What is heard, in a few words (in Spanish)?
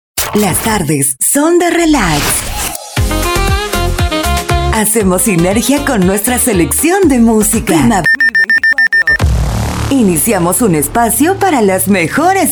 Ambient FEM; Arte; Primavera 2024